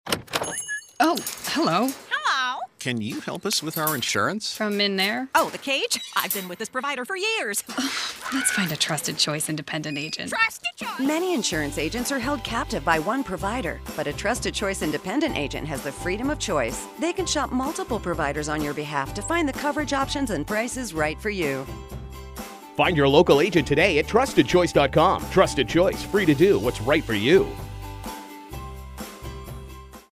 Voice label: radio ad